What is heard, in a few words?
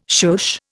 "shush", Indian